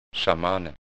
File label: Narrator